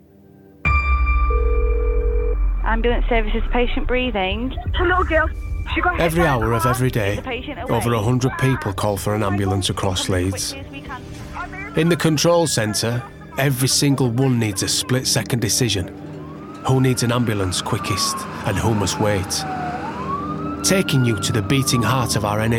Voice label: Narration, Male. Yorkshire.; 30s-50s.

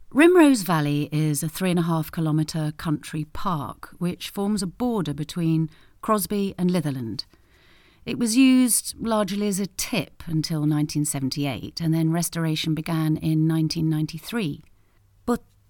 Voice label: dry read

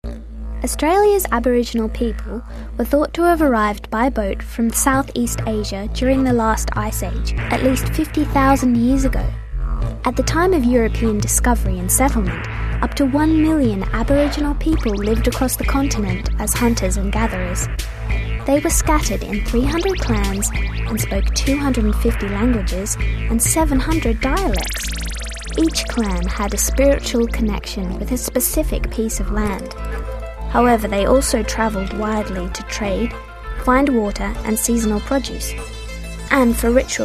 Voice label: Narration; regional accents

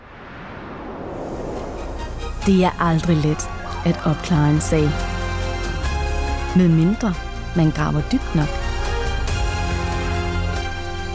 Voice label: Danish

